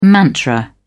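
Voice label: "mantra", English, British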